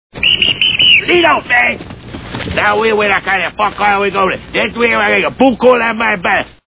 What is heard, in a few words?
jiberish